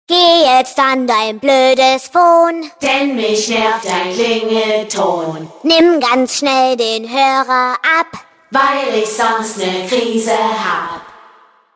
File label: DrillInstructor, fun fx ringtones; ghia productions